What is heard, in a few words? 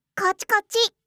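"kocchi kocchi", Japanese; yobikake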